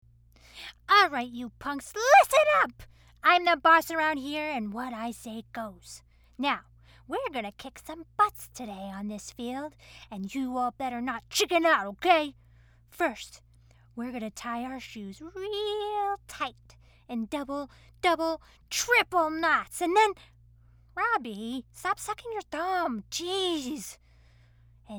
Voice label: VO kid